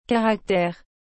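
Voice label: "caractere", French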